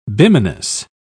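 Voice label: "bimanous", English, uk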